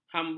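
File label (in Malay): "hamburger", Malay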